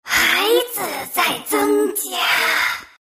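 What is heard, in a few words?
level, chinese; dota2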